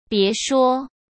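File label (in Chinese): "别说", Mandarin Chinese; hsk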